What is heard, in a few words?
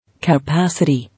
"Capacity", English